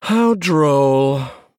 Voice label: male human, Wow